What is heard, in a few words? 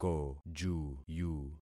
"kojuyu", concat syllables; Affixing experiment